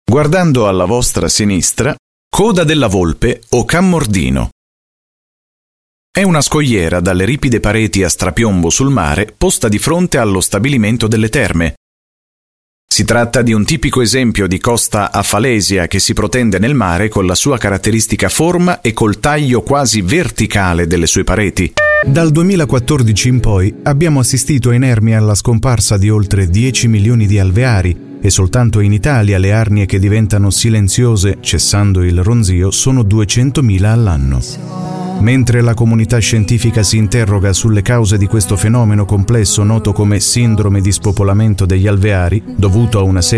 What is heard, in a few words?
italian voice over male narrator